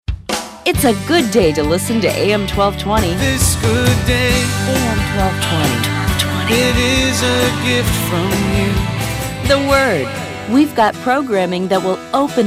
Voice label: Christian VO; Christian VO Demo